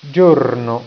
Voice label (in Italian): "giorno", italiaans; tijden